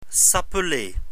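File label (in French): "s appeler", French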